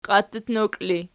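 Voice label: "qiattutnokl" ergative, Archi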